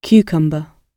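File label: "cucumber", British English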